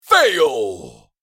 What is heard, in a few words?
Game over fail male voice; video game